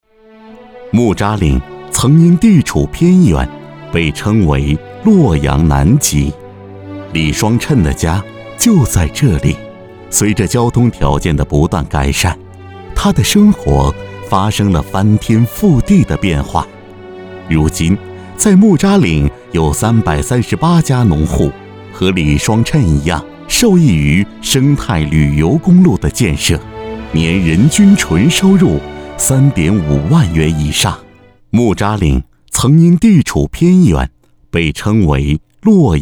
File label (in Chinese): 男 专题 行走的风景